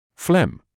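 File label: "phlegm", British English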